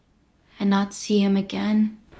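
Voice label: Voice Acting Spanish Female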